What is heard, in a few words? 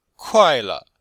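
"kuai4le5", Chinese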